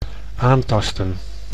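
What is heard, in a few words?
"aantasten", Dutch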